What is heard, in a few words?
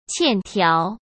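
"欠条", Mandarin Chinese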